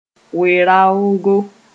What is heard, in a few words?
"we-raoogo", Mooré